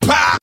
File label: "pow", Voice